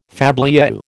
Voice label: "fabliau", American

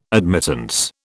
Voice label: "admittance", British

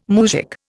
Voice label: "moujik", Indian

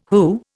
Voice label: "WHO", Indian

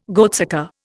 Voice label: "goatsucker", Indian

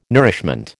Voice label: "nourishment", American

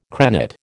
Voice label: "crenate", American

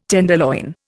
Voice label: "tenderloin", Indian

